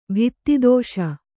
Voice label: "ಭಿತ್ತಿದೋಷ", kannada

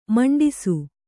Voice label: "ಮುಂಡಿಸು", kannada

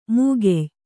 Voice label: "ಮೂಗೆಯ್", Kannada; mūgey; noun

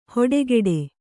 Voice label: "ಹೊಡೆಕೆಡೆ", Kannada

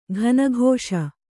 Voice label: "ಕನಿಕಿಸು", Kannada

